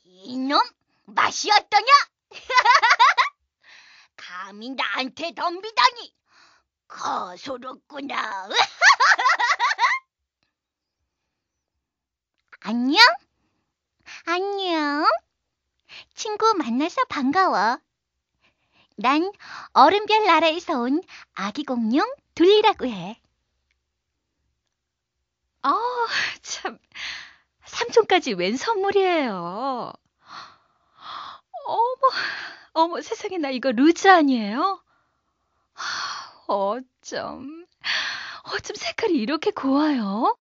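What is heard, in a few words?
Korean female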